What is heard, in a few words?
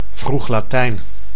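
"vroeg Latijn", Dutch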